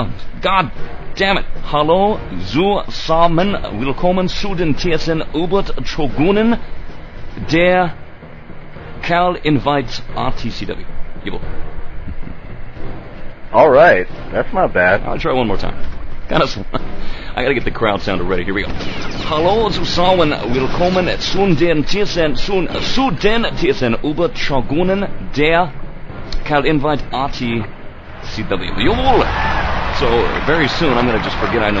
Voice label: talking german; rtcw; shoutcasts